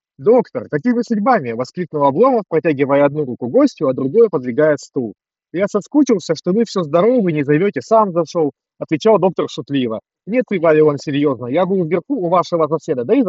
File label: Mic noise; Запись с микрофона гарнитуры; huawei freebuds 7i